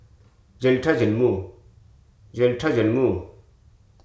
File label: "jumble", Hruso